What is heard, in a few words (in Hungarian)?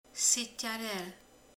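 "tanít", Hungarian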